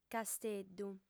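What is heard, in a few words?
"casteddu", Sardinian, female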